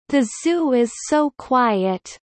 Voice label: low